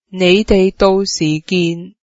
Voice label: ill meet you then, cantonese

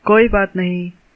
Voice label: youre welcome, hindi

